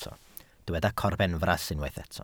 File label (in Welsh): "corbenfras" Welsh